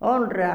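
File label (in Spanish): "honra", Argentina, La Plata; liquide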